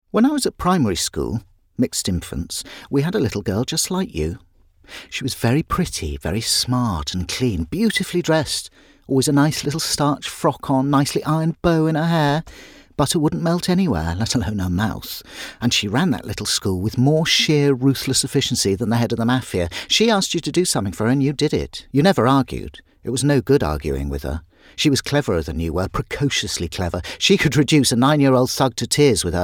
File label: Dramatic Reel